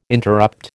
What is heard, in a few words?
"interrupt", American